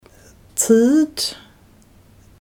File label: "time" damped